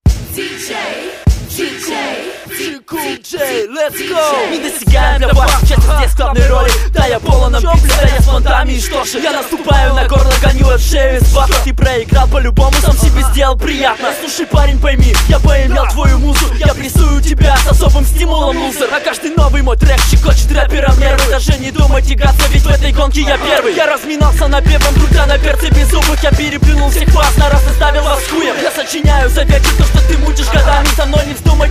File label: rap board battle